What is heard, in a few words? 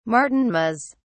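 "martinmas", British English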